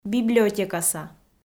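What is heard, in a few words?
"библиотекаса", Komi-Zyrian, female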